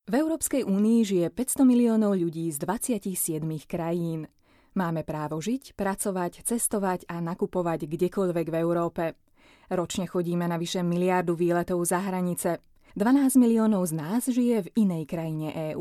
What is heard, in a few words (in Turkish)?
Slovakca Kadin, seslendirme; kadin sesler